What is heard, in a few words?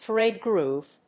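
"THREAD GROOVE", English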